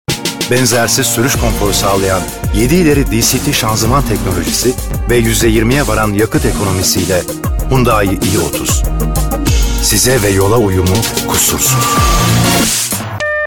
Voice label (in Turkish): reklam; hyundai